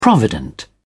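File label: "provident", British English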